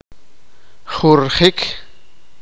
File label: sipakapense; largo